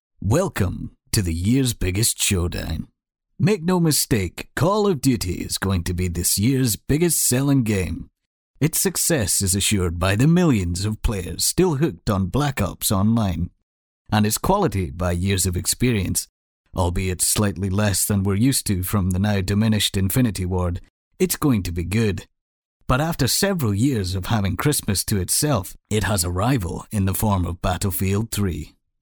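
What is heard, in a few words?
English Scottish, male, voice over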